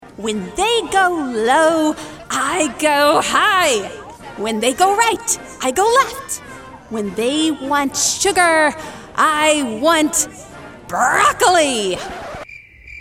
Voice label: Character; Voiceover Demos